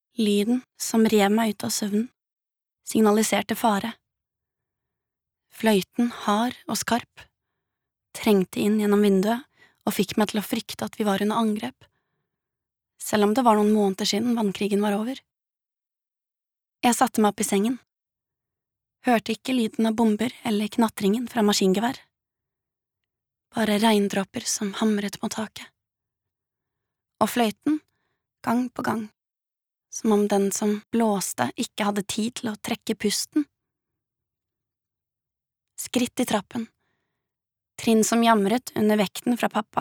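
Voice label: no audiobook, Norwegian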